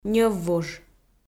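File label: "ньӧввуж", Komi, female; audio dict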